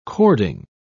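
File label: "cording", British English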